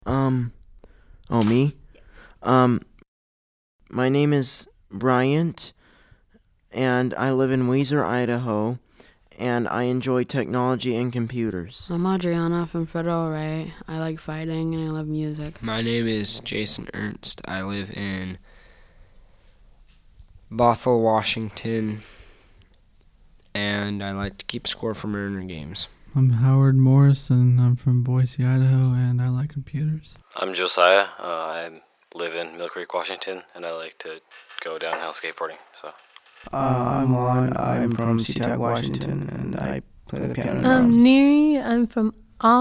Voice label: Vox Pop; Blind Youth Audio Project 2012